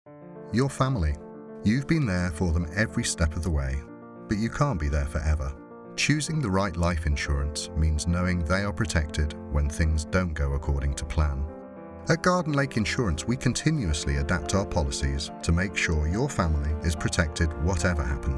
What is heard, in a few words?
foreigner